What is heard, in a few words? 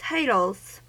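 "titles", US English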